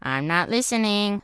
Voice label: Gnome Male Young NPC Pissed